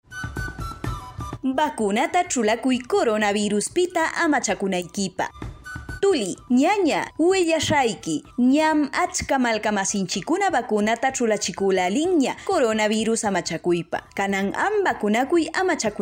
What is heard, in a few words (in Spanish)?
quechua wanka, spot de radio; vacunate para protegerte del coronavirus; vacuna contra el coronavirus